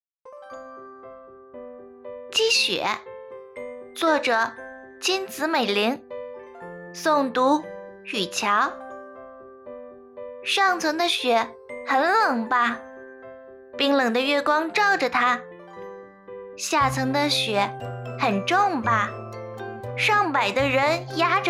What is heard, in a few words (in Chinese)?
儿童读物诗歌; 积雪